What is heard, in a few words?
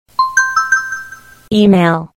voice "email" alert notification